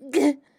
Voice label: battle f